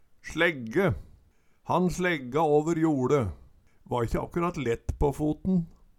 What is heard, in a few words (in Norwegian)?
"slegge", Numedalsmål